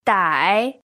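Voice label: "dai" third tone, Mandarin Chinese pinyin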